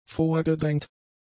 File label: "vorgedrängt", German; adjectives